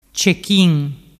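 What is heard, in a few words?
"cechin", Vernazzese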